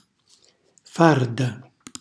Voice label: "farda", Calabrese; gonna